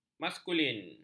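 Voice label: "maskulin", Malay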